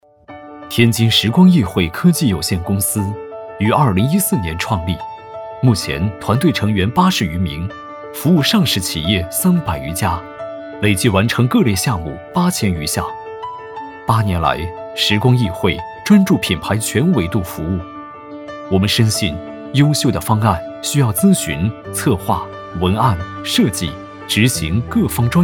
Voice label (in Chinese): 男 亲切 科技产品; 天津